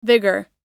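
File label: "vigour", American English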